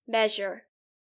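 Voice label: "measure", English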